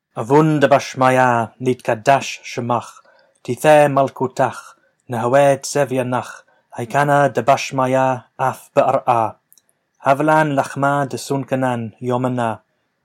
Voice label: Male Spoken, Lords Prayer Narrated, Aramaic